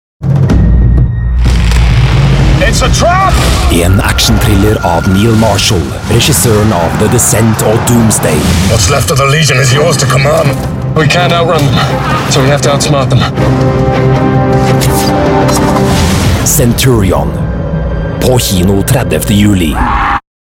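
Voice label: norwegian male commercial; voice demos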